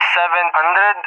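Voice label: "700", English, Russia; Numbers; DCS; Allied Flight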